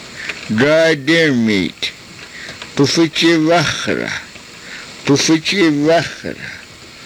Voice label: "pufichivaxrah", karuk

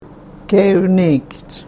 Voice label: "keevniikich", karuk

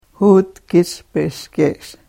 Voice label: karuk

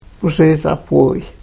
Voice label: "pusihichapvuuy", karuk

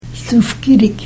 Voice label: "thufkirik", karuk

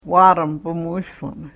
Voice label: karuk

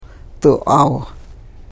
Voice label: karuk

